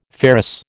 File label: "ferrous", American